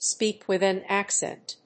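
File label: "speak with an accent", English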